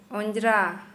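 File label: Santali, female, dirty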